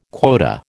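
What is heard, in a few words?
"quota", American English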